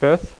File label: "FIFTH", Dealer's Speech; PowerPOKER